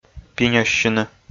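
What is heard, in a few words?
"пиняссьыны", Komi, male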